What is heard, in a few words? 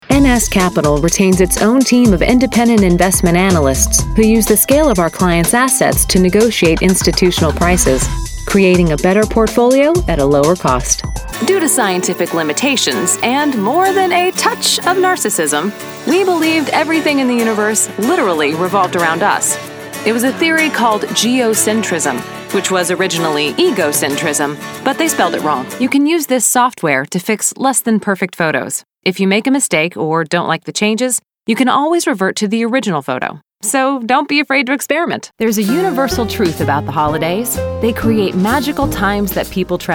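narration, Voiceover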